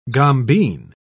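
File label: "gombeen", British English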